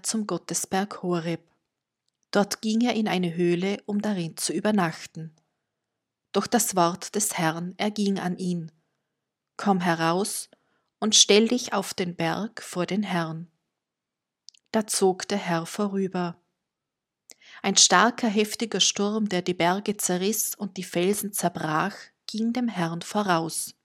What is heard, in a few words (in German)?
Lesung